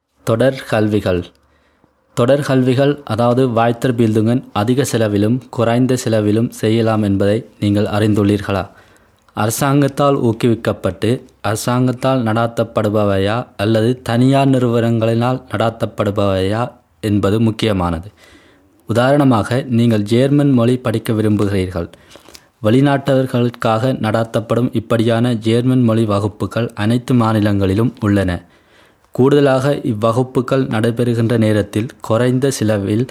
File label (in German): tamil